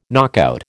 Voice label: "knockout", American